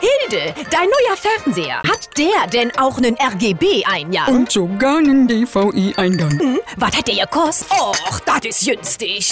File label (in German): Dialekt Rheinisch